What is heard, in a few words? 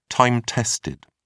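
"time-tested", British English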